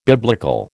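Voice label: "biblical", American English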